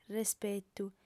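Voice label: "respetu", Sardinian female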